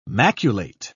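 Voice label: "maculate", British English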